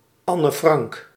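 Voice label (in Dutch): "Anne Frank", Dutch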